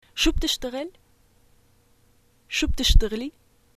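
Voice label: what do you do, Arabic, Syria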